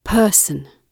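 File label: "person", British English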